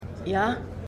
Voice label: Burmesin; For All Mankind

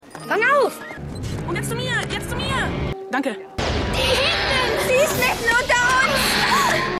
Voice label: Junge Frau; Hercules

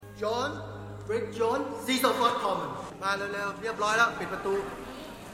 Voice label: Thai Waerter; Bridget Jones 2

